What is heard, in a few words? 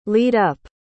"lead-up", pronounce